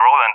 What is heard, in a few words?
"Roland", ENG; Allied Flight; AirDefence; DCS